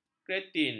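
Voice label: "kretin", Malay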